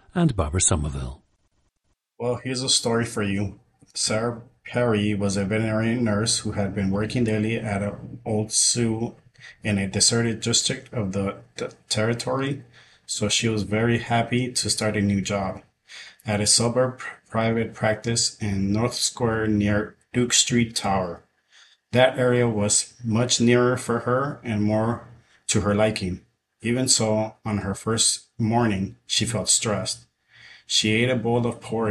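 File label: california, International Dialects of English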